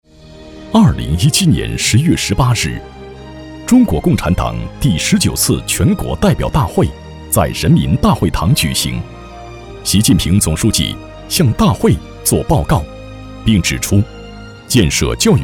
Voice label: 男-政府汇报类-教育局汇报片

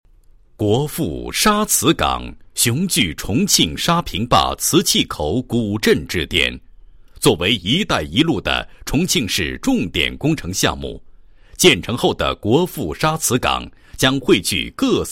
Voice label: 男-项目设计-城市客厅装饰设计方案, 配音案例

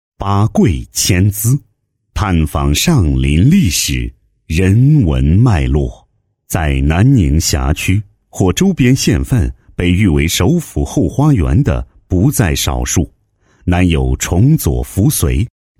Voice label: 男 城市地区宣传; 上林县宣传介绍

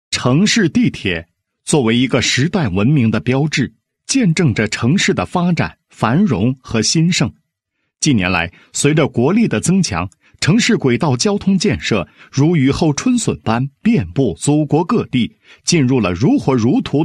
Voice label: 男 项目介绍 地铁项目宣传片

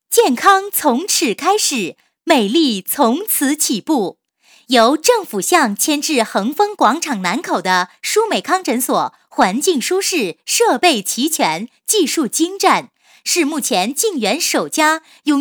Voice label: 女 促销, 国语女声配音; 彩铃促销; 舒美康诊所成品

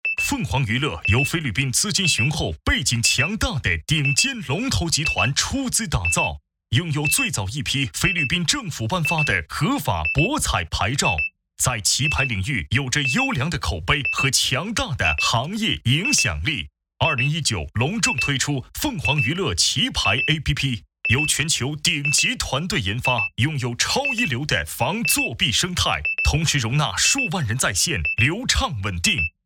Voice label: 广告, 浑厚广告, putonghua, nan; 凤凰娱乐

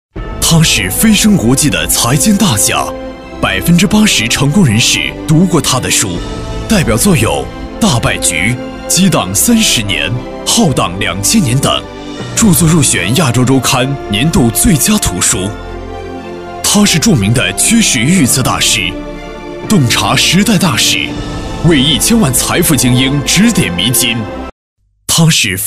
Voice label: 男-颁奖片

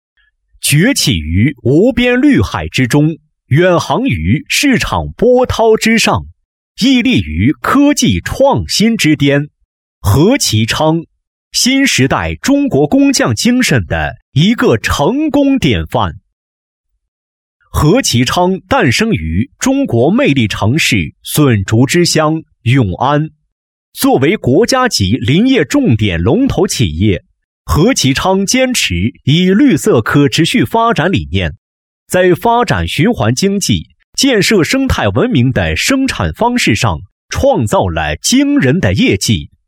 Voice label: 男, 宣传片, 企业宣传; 和其昌

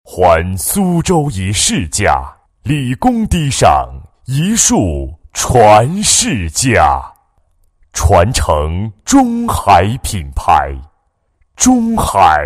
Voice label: 男 房地产广告 慢速抒情, 国语男声配音; 厚重大气; 中海

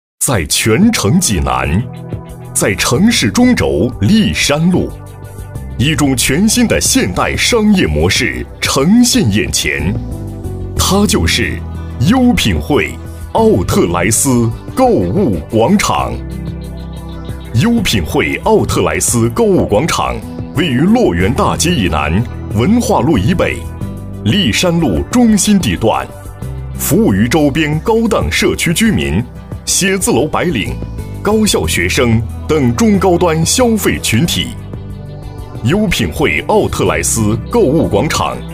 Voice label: 男80 城市综合体奥特莱斯; 国语男声配音; 央视农广

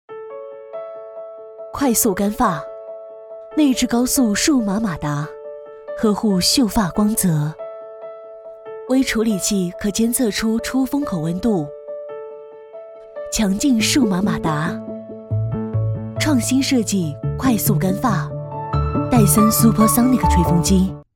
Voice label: 女 广告; 戴森

